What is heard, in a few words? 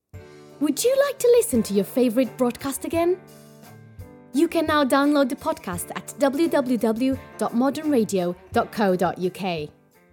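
Portuguese English, F, Voicereel; accent of portugal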